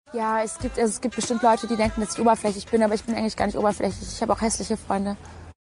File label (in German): oberflachlich deutsch